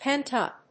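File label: "pent-up", English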